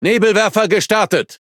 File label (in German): Speech Consumable Smoke Start, DE; World Of Warships